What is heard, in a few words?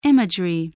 "imagery", voice spelling